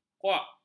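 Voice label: "kuak", Malay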